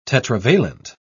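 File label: "tetravalent", English, British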